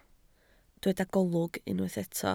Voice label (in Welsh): "golwg", Welsh